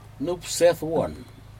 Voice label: "nupsedwun", toe, Aneityum